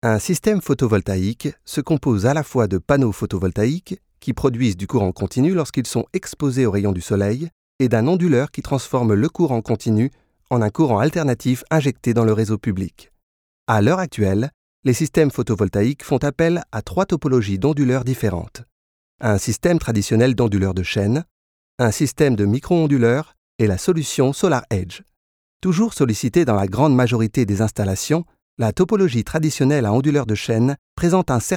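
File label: French e-learning; sprecher; franzoesisch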